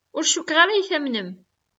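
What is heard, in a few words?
"Ur cukkeɣ ara ad iyi-tamnem.", Kabyle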